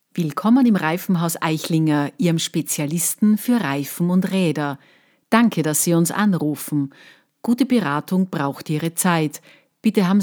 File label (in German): Meldetext Telefon, Sprecherin